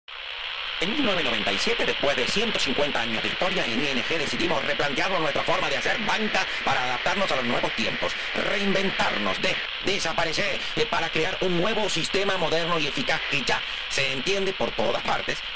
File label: Simulated Spanish Radio Commercial